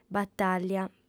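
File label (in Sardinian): "batàglia", female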